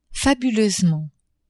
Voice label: "fabuleusement", French; adverbs